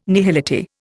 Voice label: "nihility", Indian